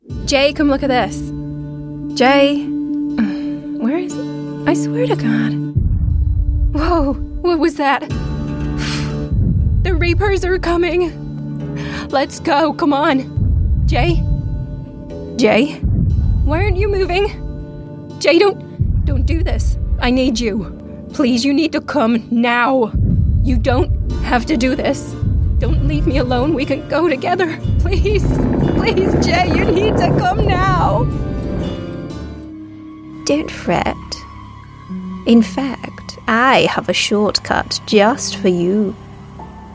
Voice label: Manchester female, video game